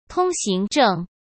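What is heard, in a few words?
"通行证", Mandarin Chinese; hsk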